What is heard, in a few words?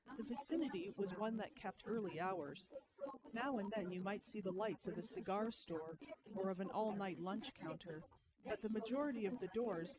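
female instantaneous source; SiSEC08; SiSEC underdetermined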